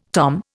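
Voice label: "tomahawk", Indian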